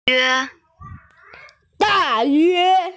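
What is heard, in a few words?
"da4yue1", female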